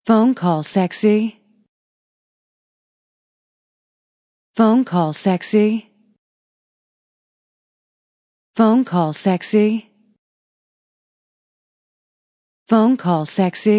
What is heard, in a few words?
Female Phonecall Sexy Ringtone